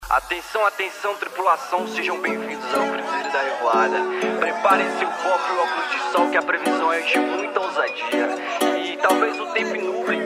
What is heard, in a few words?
rap